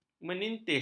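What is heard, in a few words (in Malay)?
"meningitis", Malay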